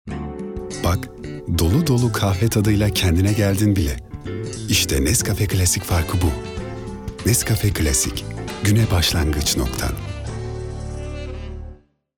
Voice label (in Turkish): sicak samimi